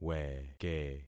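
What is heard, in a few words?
"weke", concatenated syllables; Affixing experiment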